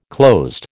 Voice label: "closed", American